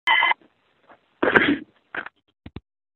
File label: Voicemail